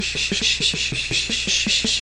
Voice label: postalveolars palatalized, Romanian